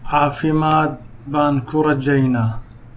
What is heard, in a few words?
get well soon, somali